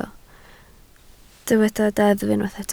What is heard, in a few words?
"deddf", Welsh